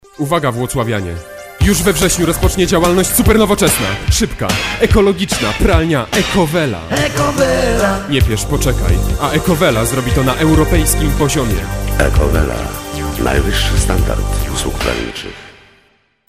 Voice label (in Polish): reklama; Ekowela